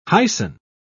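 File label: "hyson", British English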